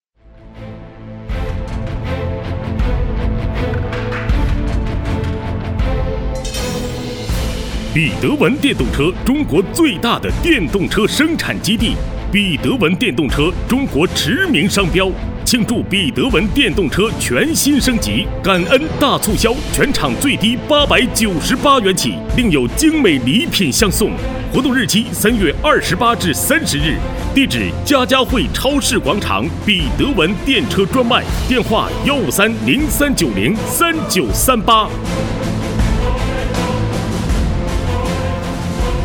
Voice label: 促销 电动车男; 比德文电动车

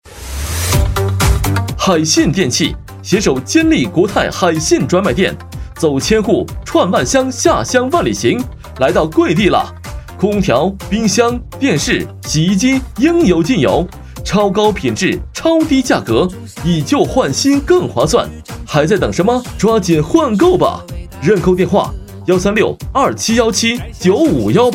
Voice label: 【促销】家电依旧换新男

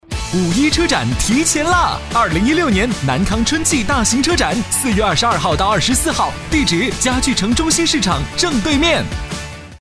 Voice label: 广告 车展 男 混录 活力激情

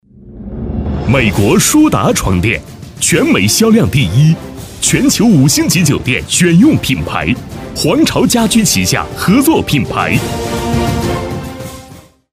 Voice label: 广告 男 激情洪亮; 皇朝家居

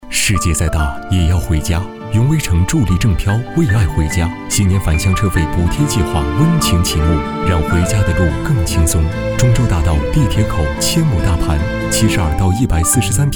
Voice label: 广告 大气温情; 永威城

